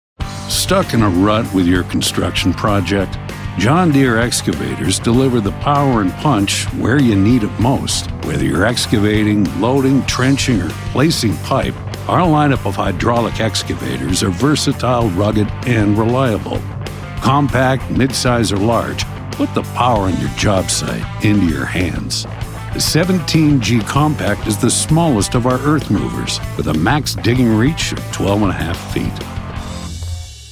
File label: Narration; Married With Mics